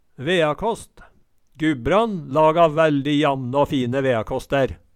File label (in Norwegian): "veakast", Numedalsmål